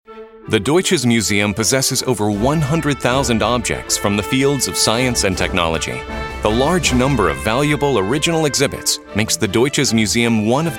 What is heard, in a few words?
english american narration; Inglês (dos Estados Unidos)